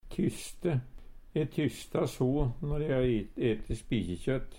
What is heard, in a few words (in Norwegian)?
tysste, Numedalsmål; E tyssta so når e har ete spikjikjøt.